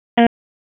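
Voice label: Raleigh Police Southeast District Dispatch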